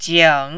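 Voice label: "jing", Mandarin, third tone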